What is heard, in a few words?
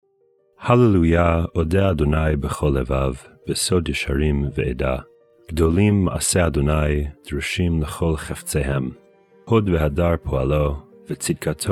poetry and prayer psalm hebrew